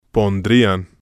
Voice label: pondrían, Spanish

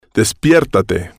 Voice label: despiértate, Spanish

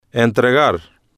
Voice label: "entregar", spanish

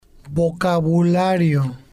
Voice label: "vocabulario", spanish

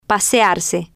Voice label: "pasearse" Spanish

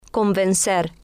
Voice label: "convencer", spanish

